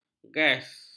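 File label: "gas", Malay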